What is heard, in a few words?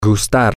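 to Like, spanish, male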